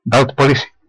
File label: police, west flemish